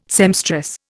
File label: "seamstress", Indian